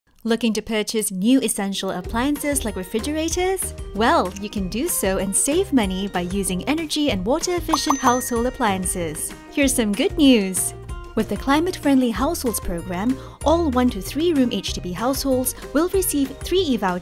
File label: english singapore promos; Una actriz de voz cálida, amigable y versátil con atractivo global.; singaporean english; Ofertas